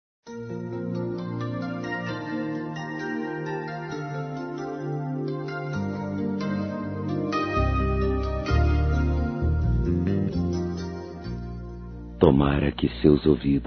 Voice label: Conquista Masculino; Telemensagens; Conquistas Cantadas paqueras; Telemensagens 2017

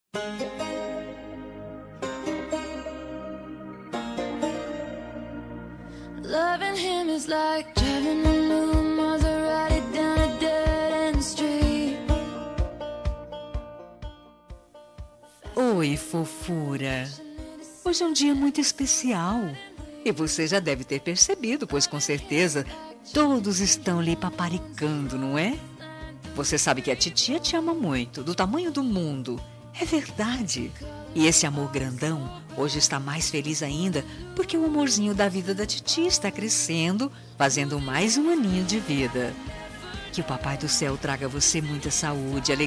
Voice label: ANIV. SOBRINHA CRIANÇA F

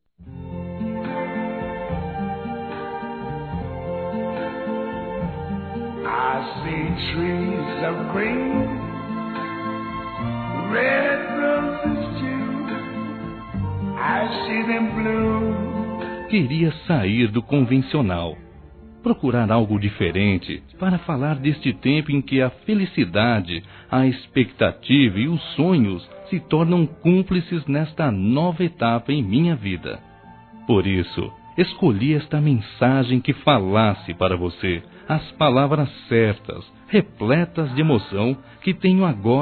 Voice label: Madrinha de Casamento, Masc; Telemensagens; Telemensagens 2017

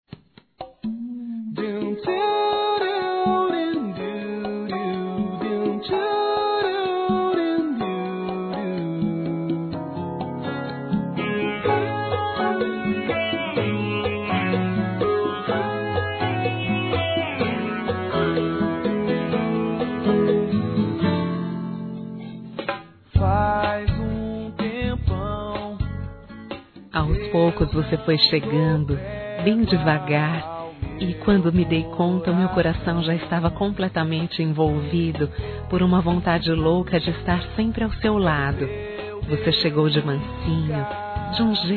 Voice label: ROMANTICA (F); Telemensagens 2017; Românticas

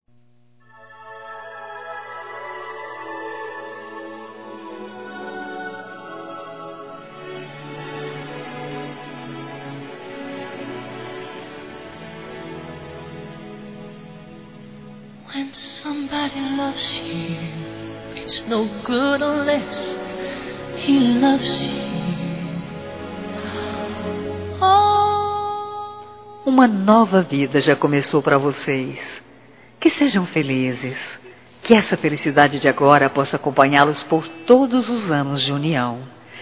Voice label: Aniversário Casamento Geral Feminino, Telemensagens; Aniversários

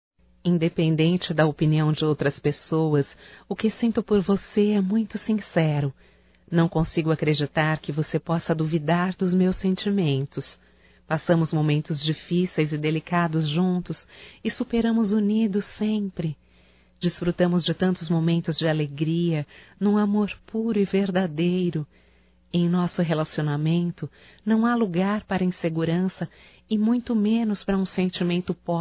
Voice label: ROM ESTAO SEPARADOS (F), Telemensagens Românticas fem

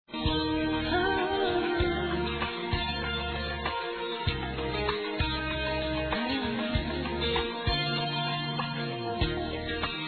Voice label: Dia da Mulher, fem; Telemensagens; Dia Internacional da Mulher; Telemensagens 2017

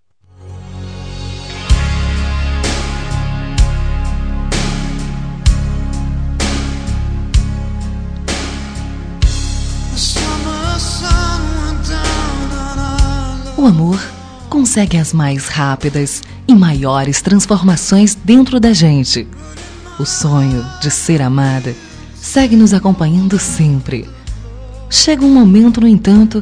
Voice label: NAO QUERO TE PERDER, FEM; Telemensagens; Pedidos

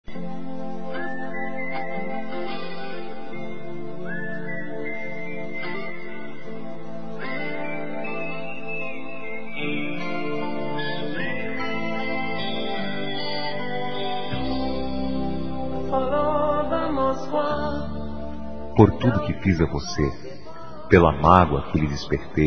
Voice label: DESCULPAS GERAL M; Telemensagens 2017

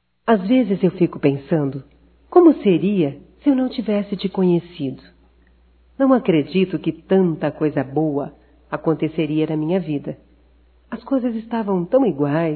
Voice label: TE AMO(F), fem; Amor; Telemensagens 2017

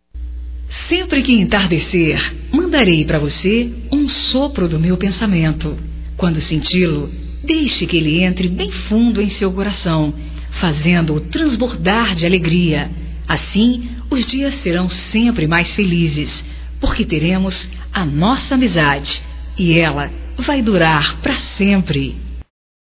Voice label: Amizade Geral Feminino, Telemensagens

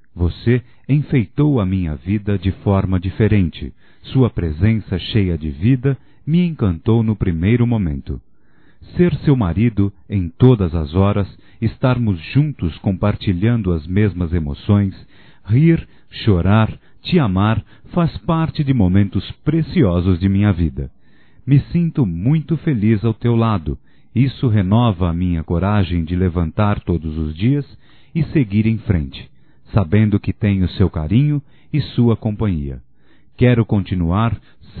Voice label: TE AMO, Telemensagens, Amor, masc; Telemensagens 2017

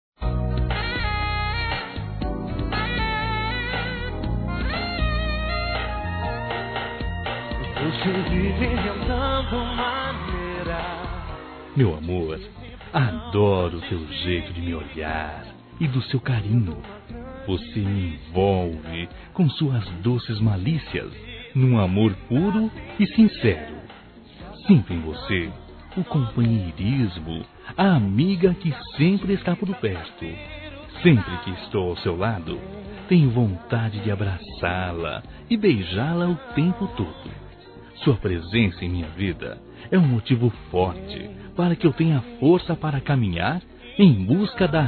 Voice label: ROMANTICA, Telemensagens; Telemensagens 2017; Românticas

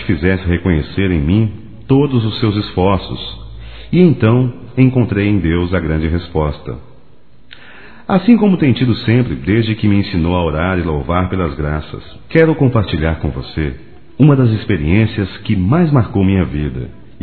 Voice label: Evangélico Aniversário Mãe Masculino, Telemensagens

